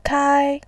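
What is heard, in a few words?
"kai1", Mandarin Chinese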